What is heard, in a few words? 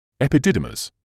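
"epididymis", British English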